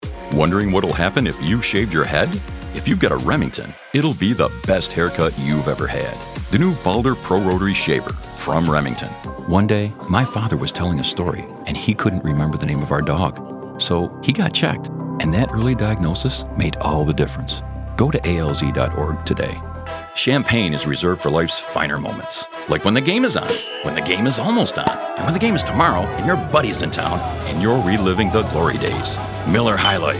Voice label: Commercial